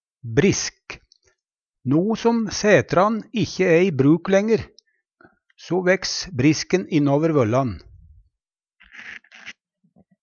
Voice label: brisk, Numedalsmål; einer

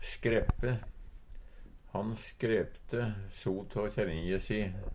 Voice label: "skreppe", Numedalsmål; Verb

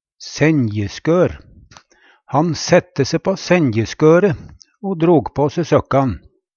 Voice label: senjeskor, Numedalsmål; Skør blir og bruka om benk i kyrkja

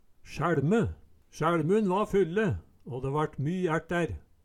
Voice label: "sjae Lme", Numedalsmål

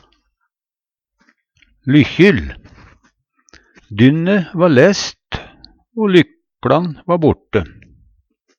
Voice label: lykjil, Numedalsmål; lykkjil; Sume seier nykjil

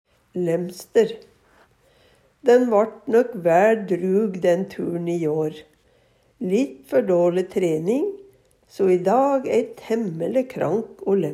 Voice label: laemster, Numedalsmål

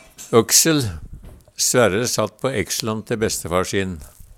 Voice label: oksel, Numedalsmål; Substantiv hokjønn

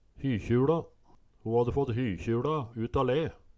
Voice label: hykjuLa, Numedalsmål

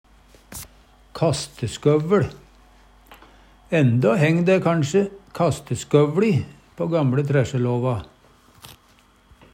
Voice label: kasteskovveL, Numedalsmål

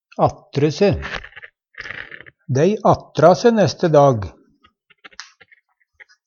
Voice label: "attre se", Numedalsmål; ombestemme seg; Uttrykk; Dei attra se neste dag.

